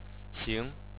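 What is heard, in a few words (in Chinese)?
"xing", Chinese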